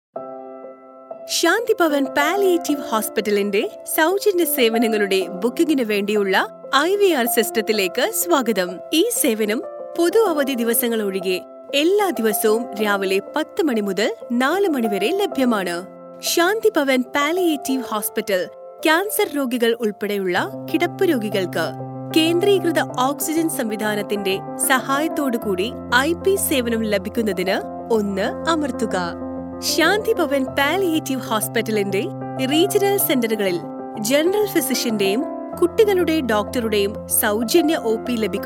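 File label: IVR malayalam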